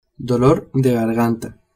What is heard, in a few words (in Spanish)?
"dolor garganta", spanish